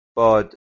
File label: "bad", Persian